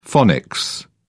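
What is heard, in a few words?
"phonics", British English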